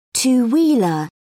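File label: "two wheeler", English, British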